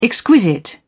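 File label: "exquisite", British English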